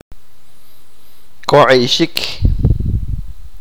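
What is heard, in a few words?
apuñalar, achi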